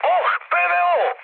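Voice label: Serbian damaged aa mood high, speech; War Thunder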